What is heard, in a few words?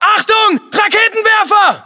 US threat rpg, battlechatter; cod modern warfare 2